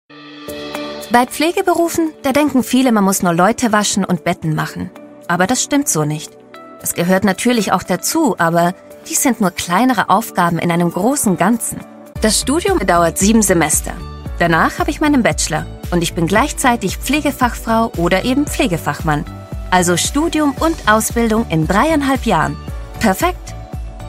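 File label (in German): Werbung Pflegestudium Neutral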